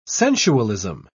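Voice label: "sensualism", British English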